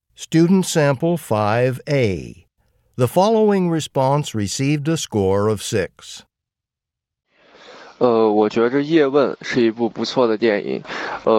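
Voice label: chinese conversation; Conversation 5 Sample A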